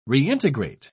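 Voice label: "reintegrate", British English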